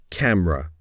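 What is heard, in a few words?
"camera", English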